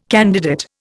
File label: "candidate", Indian